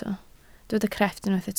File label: "crefft", Welsh